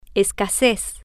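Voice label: "es-ca-sez", Spanish, female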